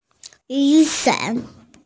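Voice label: "yu3 san3", Mandarin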